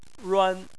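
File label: "ruan", Chinese, tone 1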